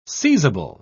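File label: "seizable", British English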